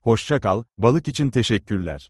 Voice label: so long, Turkish

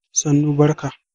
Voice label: sorry, hausa

